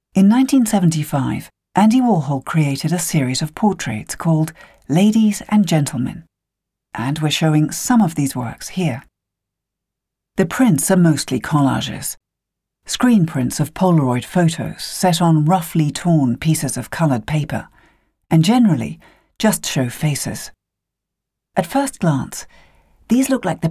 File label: audioguide; Andy Warhol